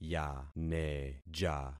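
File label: "yaneja", concatenated syllables; Affixing experiment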